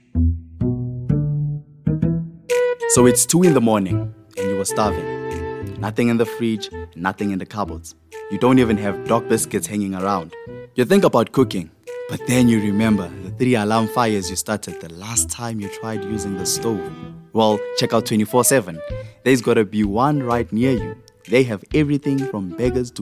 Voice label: English Conversational